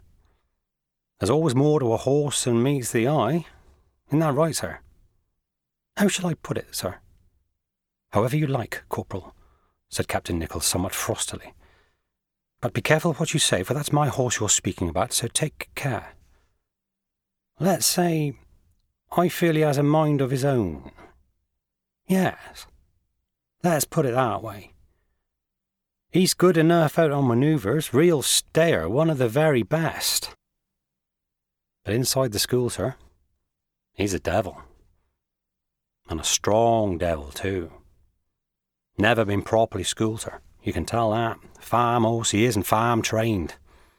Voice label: Audiobook